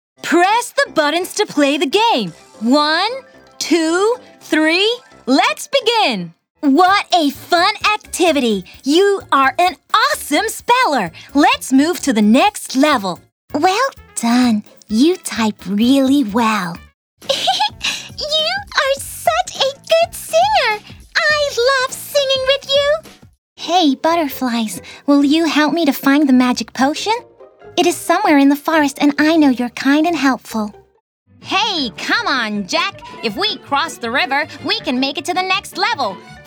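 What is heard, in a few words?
American English, toys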